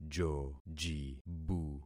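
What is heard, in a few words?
"jojibu", concatenated syllables; Affixing experiment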